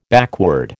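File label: "backward", American English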